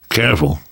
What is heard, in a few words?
warn careful, namvet, survivor voice; Left 4 Dead 2; left4dead2 dlc3